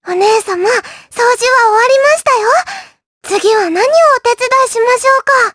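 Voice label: vox dialogue, voices, Japanese; Lilia; kings raid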